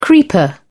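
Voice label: "creeper", British English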